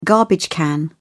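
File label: "garbage can", English, British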